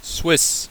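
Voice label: "swiss" English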